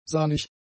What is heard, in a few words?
"sahnig", german; adjectives